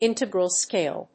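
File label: "integral scale", English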